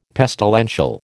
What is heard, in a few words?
"pestilential", American